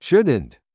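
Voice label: "shouldnt", English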